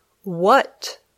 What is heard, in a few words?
"what", English